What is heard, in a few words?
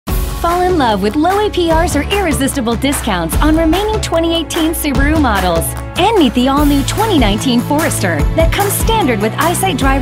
Automotive, female voices